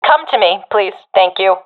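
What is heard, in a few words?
request regroup, professional female; vo; Counter Strike Model Pack; CS:GO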